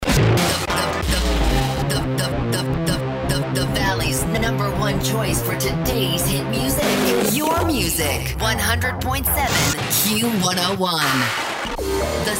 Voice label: imaging, female voice talent